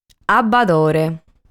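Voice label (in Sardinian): "Abbadore", Sardinian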